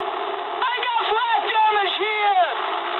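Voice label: damaged anti-aircraft mood high, speech English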